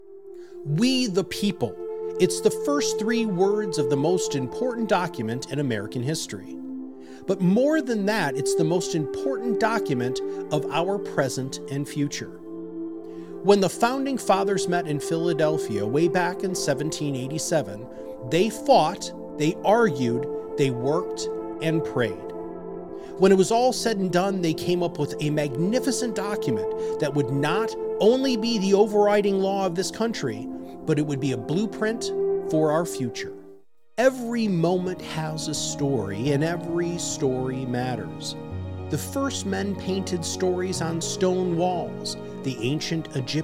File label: Male